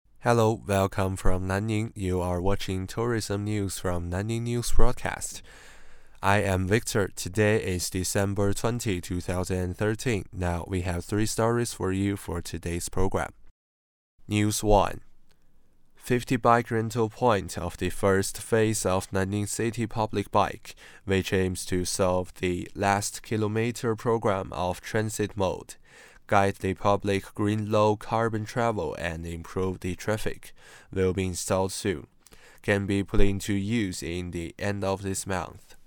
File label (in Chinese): 英语新闻; 样音英语新闻